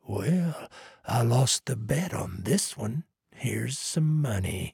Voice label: trader male neutral quest complete

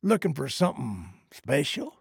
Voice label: trader male neutral greeting

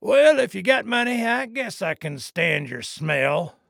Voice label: trader male dislike greeting

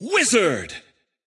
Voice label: Wizard normal

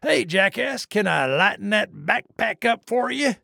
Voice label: trader male dislike greeting

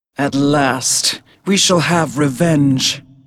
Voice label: Hero female demon hunter ready

